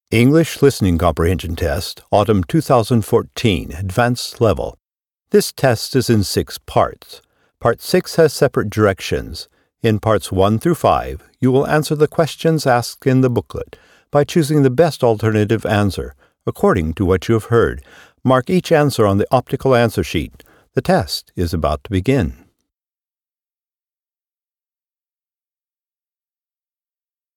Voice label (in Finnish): Englanti pitkä kuuntelukoe äänite syksy; Engelska lång hörförståelseprov inspelning hösten